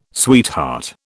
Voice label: "sweetheart", British